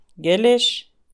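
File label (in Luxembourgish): "Gellich", Luxembourgish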